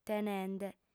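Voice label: "tenende", lingua sarda, female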